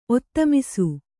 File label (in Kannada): "ಒತ್ತಮಿಸು", Kannada